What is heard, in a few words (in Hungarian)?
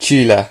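"chile", Hungarian; gcompris; geography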